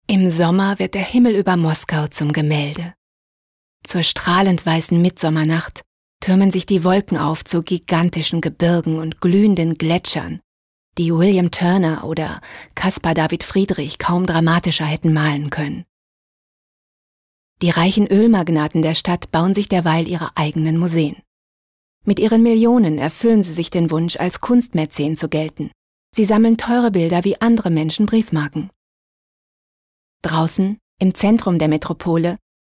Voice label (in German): Kommentar, Neutral